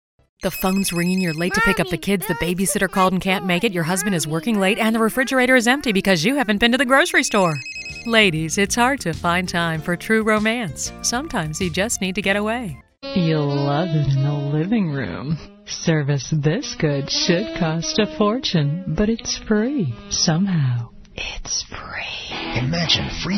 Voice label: Commercial